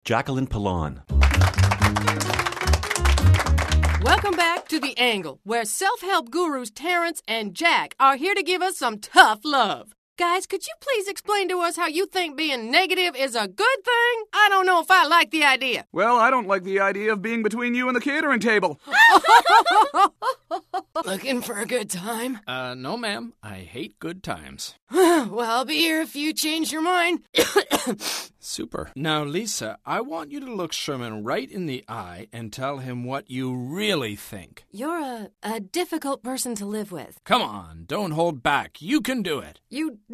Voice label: animation female